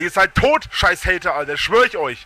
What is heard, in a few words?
angry; spackenmobs